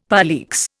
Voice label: "poleaxe", Indian; Dictionary